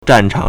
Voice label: "zhan4chang3", Chinese

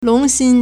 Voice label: "long2xin1", Chinese

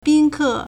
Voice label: "bin1ke4", Chinese

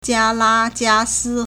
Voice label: "jia1 la jia1 si1", Chinese

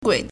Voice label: "gui3", Chinese

